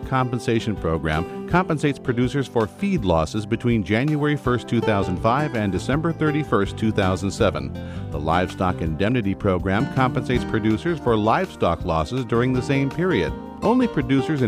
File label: psa sign; FSA